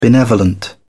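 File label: "benevolent", English, British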